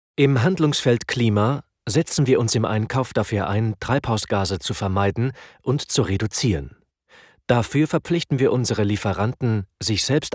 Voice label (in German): E-Learning trocken; Lidl